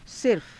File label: "sirfa", hindi